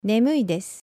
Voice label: "nemuidesu", Japanese

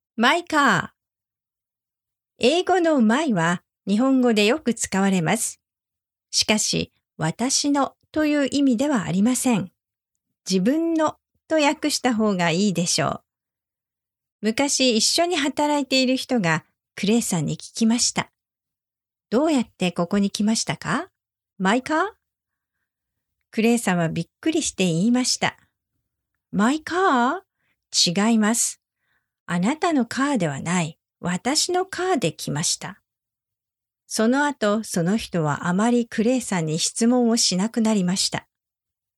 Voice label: My Car Normal Speed, Japanese

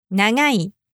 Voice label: "nagai", japanese

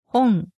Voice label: "hon", Japanese

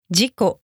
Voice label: "jiko", Japanese

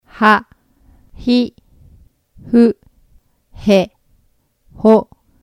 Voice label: ha hi fu he ho, Japanese kana; kana groups

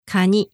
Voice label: "kani", Japanese

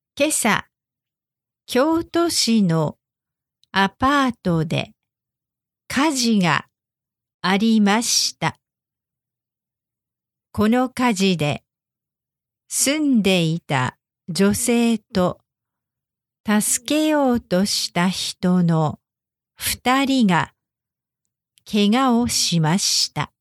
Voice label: news slow

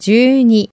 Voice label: "juuni", japanese

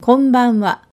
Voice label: "konbanwa", Japanese

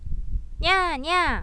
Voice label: "nya", Japanese

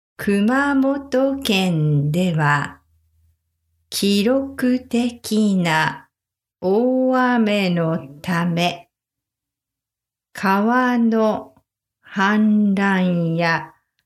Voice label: news kumamoto slow speed, Japanese

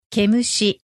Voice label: "kemushi", Japanese

